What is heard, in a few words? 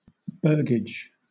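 "burgage", British English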